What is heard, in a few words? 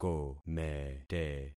"komete", concat syllables; Affixing experiment